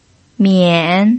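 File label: "mian", pinyin, third tone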